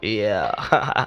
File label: "yeah ha ha", voice; ooh ahh